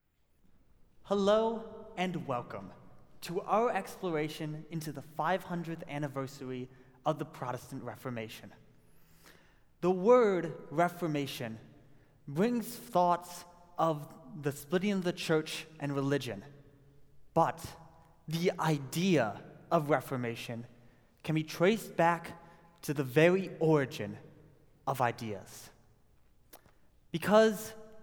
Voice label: narration

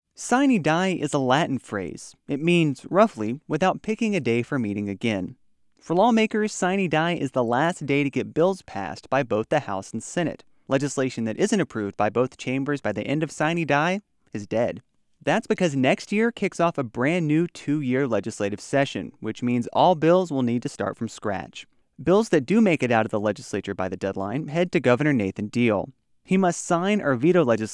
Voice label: spot; Sine Die

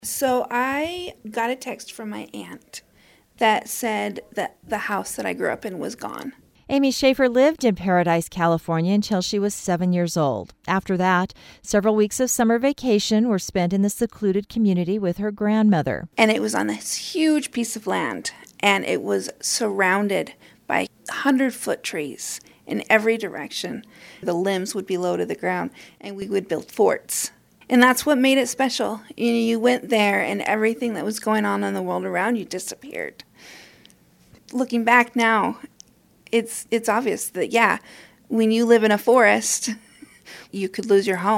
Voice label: narration

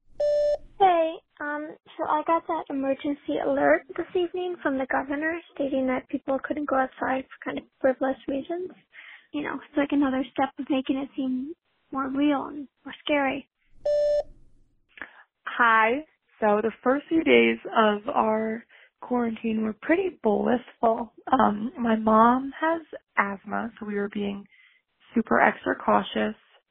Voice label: corona voicemail

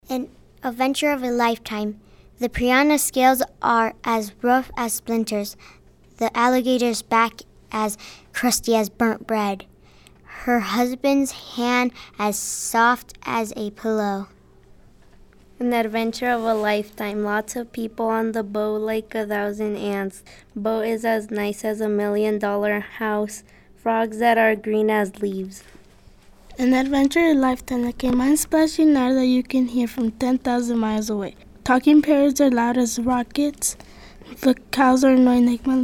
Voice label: voices group

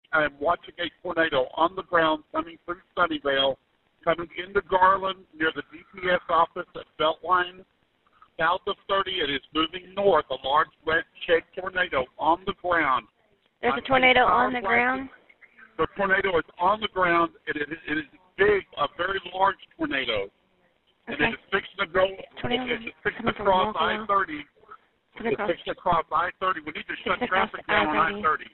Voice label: tornado 911 calls

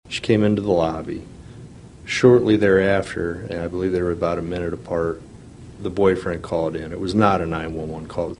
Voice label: 911 call

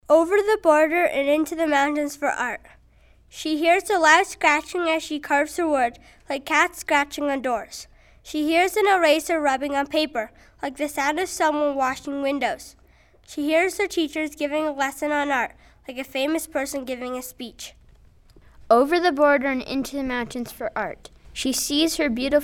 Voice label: voices group

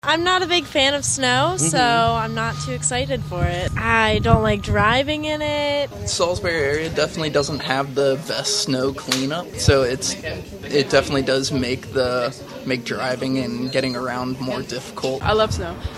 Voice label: vox pop; snow

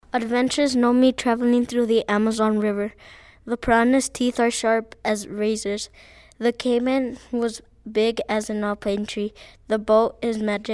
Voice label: voices group

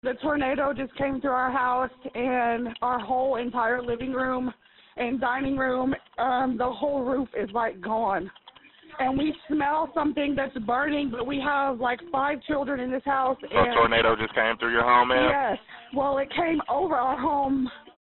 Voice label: tornado 911 calls; garland

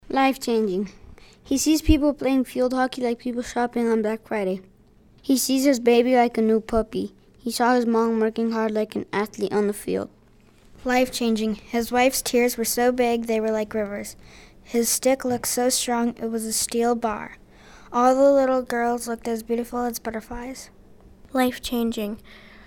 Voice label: voices group

